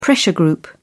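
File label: "pressure group", British English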